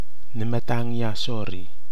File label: "nɨmatagi asori", Kwamera; hurricane